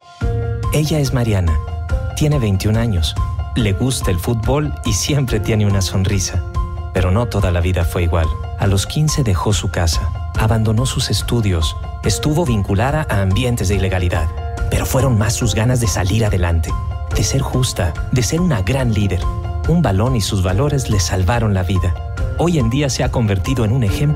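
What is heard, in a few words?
Spanish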